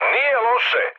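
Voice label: Serbian trick mood high; speech; War Thunder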